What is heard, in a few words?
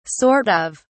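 "sort of", British English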